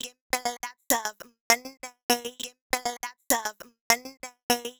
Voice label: mondaay, Vocal Loops; Transmission-X

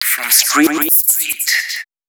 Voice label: male, Dance spoken hooks

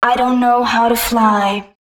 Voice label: female, spoken hooks; Mutekki Media; Dance Ultra Voice VOL-1

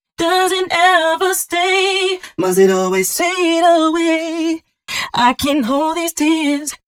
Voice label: female d 120bpm, short hooks, Dance Voice; Mutekki Media; Dance Ultra Voice VOL-1

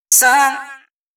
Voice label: female, C# 140bpm, Dance Ultra Voice, short hooks; Mutekki Media; Dance Ultra Voice VOL-1

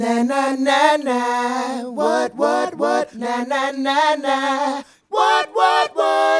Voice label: Male Vocal; Sonic Foundry (Sony Creative Software); Mac Money

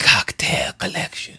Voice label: smoky, Spoken; Kocktail K Spoken

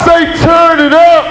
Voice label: "turn it up", Amiga Soundtracker sample; Amiga Soundtracker Sample Packs

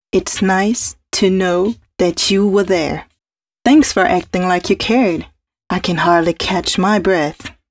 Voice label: female c#132bpm, spoken hooks

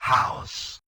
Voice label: Yamaha DJX 2B, "Rock The House", robot

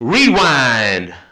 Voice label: Male FX Vocals; Houseworx

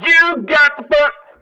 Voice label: Male FX Vocals; Houseworx

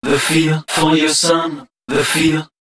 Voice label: male d# 130, short hooks, Dance Voice

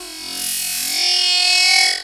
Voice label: VOICE, VOICE-FX

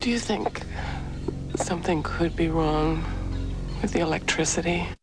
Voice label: ELEKTRICITY, Weird dialg; AMG; Global Trance Mission VOL-1

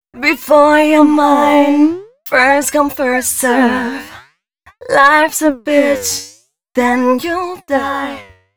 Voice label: female, Dance Ultra Voice hooklines

